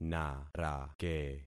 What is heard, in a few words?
"narake", concat syllables; Affixing experiment